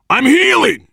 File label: cover me, voice, biker; Left 4 Dead 2; left4dead2 dlc3; survivor